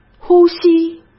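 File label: "呼吸", Mandarin Chinese; chinese flashcards; hsk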